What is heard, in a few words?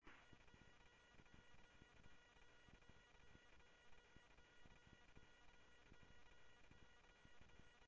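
Sheriff West County, scanner audio trunk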